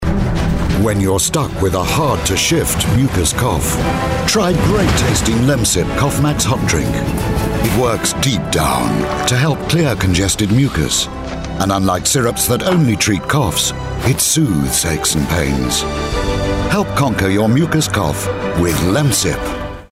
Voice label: british informative genuine